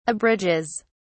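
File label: "abridges", English, British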